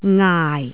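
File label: "ngaai4", Cantonese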